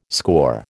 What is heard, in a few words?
"scaur", American